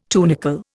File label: "tunicle", Indian